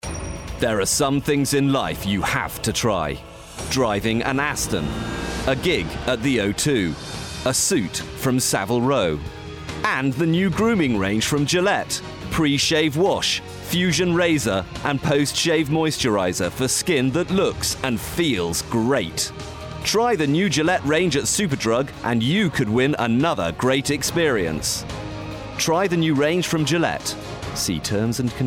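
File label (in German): British Werbung, englisch; sprecher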